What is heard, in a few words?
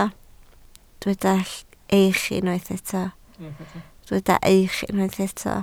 "eich", Welsh